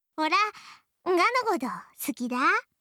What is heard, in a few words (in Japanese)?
好きです 岩手; imas; 春香